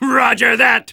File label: positive vocalization; "Roger that!"; Soldier